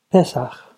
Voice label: "pesach", Hebrew